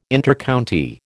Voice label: "Intercounty", American

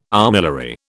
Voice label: "armillary", British

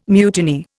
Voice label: "mutiny", Indian

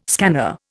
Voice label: "scanner", Indian

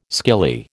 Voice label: "skilly", American

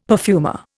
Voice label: "perfumer", Indian; Dictionary

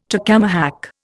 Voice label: "tacamahac", Indian

